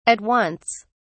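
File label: "at once", British English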